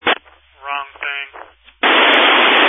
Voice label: Family Radio Service (FRS)